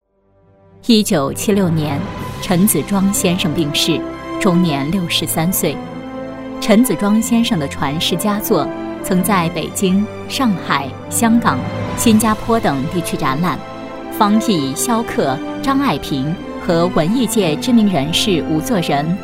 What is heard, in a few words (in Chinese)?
女, 人物; 名人录